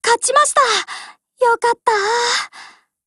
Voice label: WIN JP; MP40